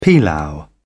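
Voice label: "pilau", British English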